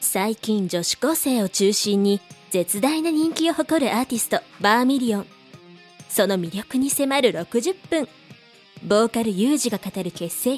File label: narration